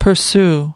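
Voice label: "pursue", English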